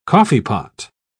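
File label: "coffeepot", British English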